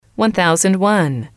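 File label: one thousand one, female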